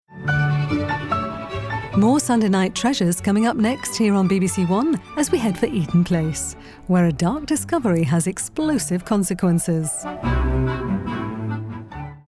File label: continuity F